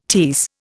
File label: "tierce", Indian